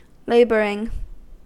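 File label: "laboring", American English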